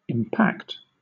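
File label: "impact", British English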